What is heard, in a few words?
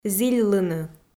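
"зільлыны", Komi-Zyrian, female; audio dict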